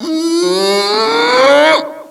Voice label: angry, Echo inside caves, underground; DS; ML BOWSERSINSIDE; Mario